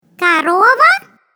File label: RUS cow, Russian